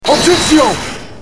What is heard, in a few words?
Objection, French; Edgeworth